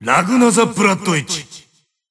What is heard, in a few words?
System Voice; BBCP; Bang Shishigami